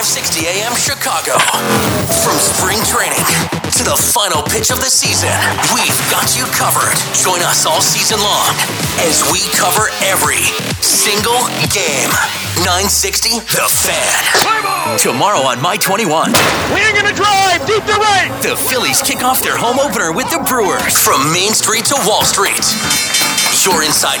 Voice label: radio news talk; radio imaging